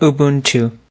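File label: "Ubuntu" pronunciation, English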